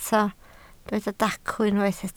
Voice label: "dacw", Welsh